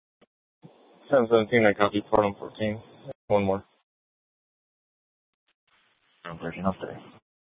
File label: Mesa PD Fiesta Dispatch; TOPAZ Regional Wireless Cooperative (TRWC); Mesa Simulcast